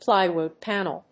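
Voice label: "plywood panel", English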